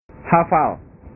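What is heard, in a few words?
"hafal", Indonesian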